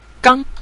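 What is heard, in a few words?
"gang", Mandarin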